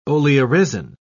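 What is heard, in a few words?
"oleoresin", British English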